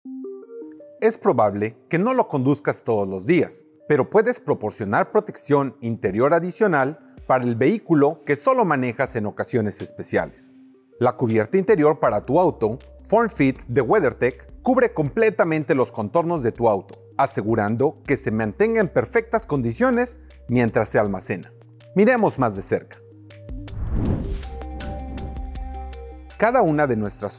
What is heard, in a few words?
Spanish; Form Fit Indoor Car Cover